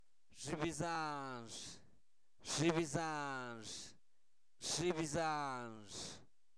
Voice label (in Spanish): Zapoteco, santo domingo albarradas; INALI; posesivos; su hermana